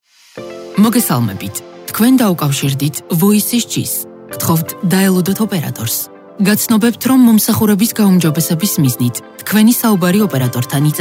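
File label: female, IVR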